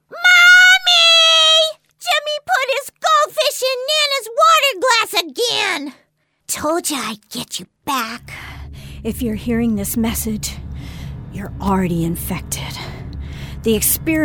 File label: Character